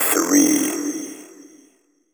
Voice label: techno male 140; Vocals

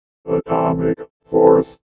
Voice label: VOICEFX ELCTR 140; Techno2

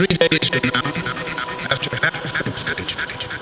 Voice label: TECHNO VOCALS 140; Techno2

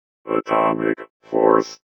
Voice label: VOICEFX ELCTR 140; Techno2

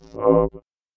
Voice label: VOICEFX ELCTR 140; Techno2

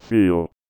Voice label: VOICEFX ELCTR 140; Techno2

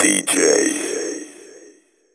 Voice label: techno male 140; Vocals

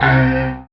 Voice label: VOX, VOCODER

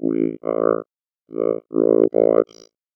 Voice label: VOICEFX ELCTR 140; Techno2

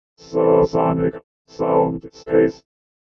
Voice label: VOICEFX ELCTR 140; Techno2

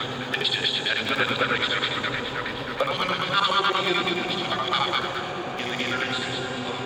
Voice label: TECHNO VOCALS 140; Techno2

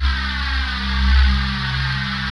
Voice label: VOX, VOCODER; VOCODER 1

